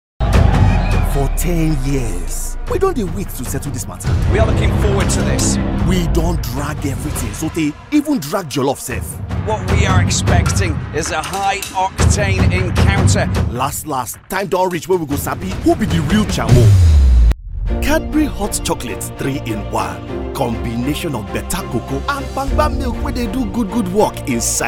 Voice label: NIGERIAN PIDGIN Commercials